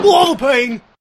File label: woah pain